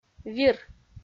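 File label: "вир", Komi, female; audio dict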